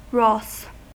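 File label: "ross", US English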